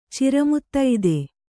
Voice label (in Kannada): "ಚಿರಮುತ್ತೈದೆ", Kannada